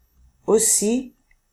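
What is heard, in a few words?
"aussi", French; famille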